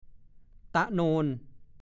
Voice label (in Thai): ตะโนน, ภาษาโคราช; เนิน มูลดินที่สูง ตะโมน ก็พูด